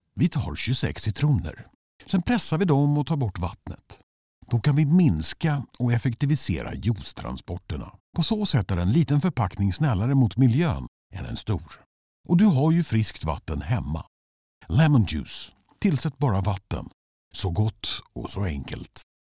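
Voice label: commercial, Swedish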